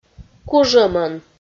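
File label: "кужӧмӧн", Komi, female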